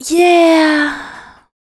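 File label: vocal female, Dance Vocals, spoken words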